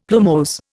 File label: "plumose", Indian; Dictionary